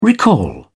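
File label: "recall", British English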